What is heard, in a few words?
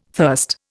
"thirst", Indian